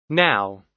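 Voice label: "now", American English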